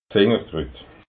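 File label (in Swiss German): "fingerkrut", Alsatian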